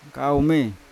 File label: "kaume ko", Yawa; fruit; unat